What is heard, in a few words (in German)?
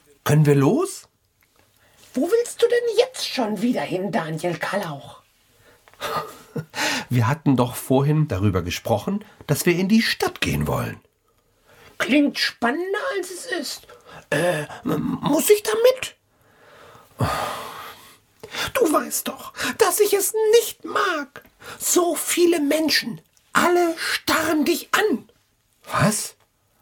Hoerspiel